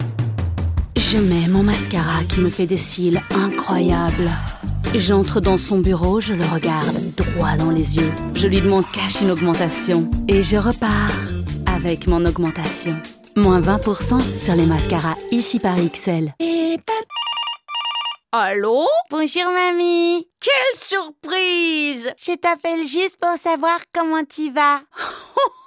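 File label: French, Commercial Belgium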